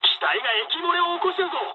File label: damaged water mood med, speech jp; War Thunder